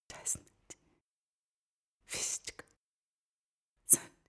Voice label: female whisper single

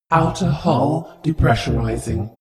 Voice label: space shuttle female status report

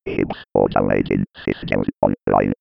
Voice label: computer voice authorisation

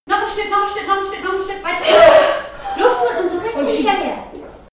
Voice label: birth nurse encouraging

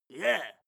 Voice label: male yeah smooth

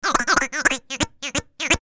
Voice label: funny voice

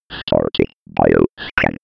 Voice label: old 90s computer voice

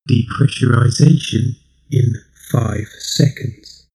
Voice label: male system status

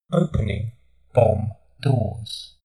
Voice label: friendly male system status

